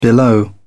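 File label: "below", British English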